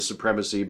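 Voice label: "supremacy", American English; pronunciations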